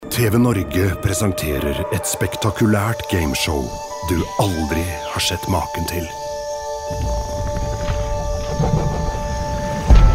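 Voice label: Norwegian, male, commercial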